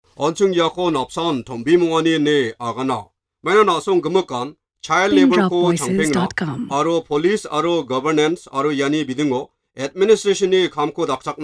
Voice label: Garo

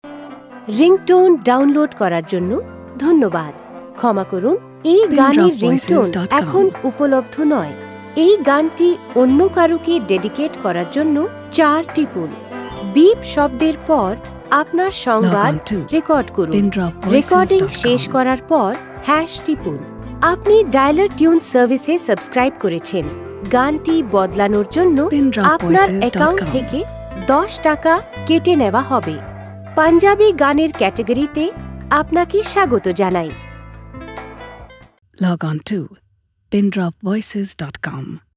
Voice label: Bengali

